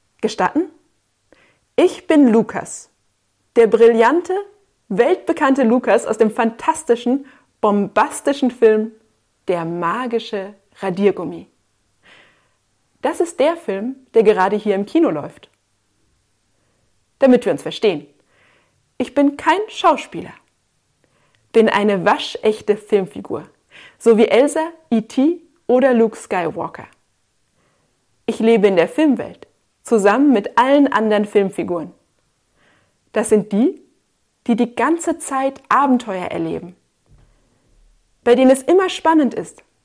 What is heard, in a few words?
Audiosample Lavalier Microphone